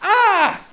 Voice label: hostage pain; Counter-Strike 1.6